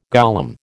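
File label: "golem", American English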